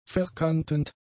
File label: "verkantend", German; adjectives